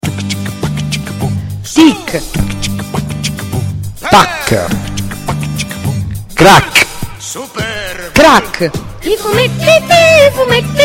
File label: spot; mostra scarce crack; scarceranda